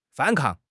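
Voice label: "反抗", Chinese; HSK6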